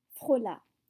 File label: "frôla", French